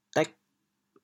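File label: "dêg8", Teochew, chaoyang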